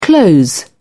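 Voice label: "close in", British English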